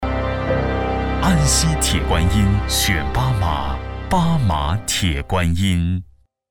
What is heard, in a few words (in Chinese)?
男 茶广告; 八马铁观音茶叶